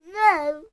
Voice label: human boy three years old say "no" angrily